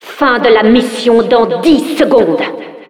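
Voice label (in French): announcer ends 10 sec, French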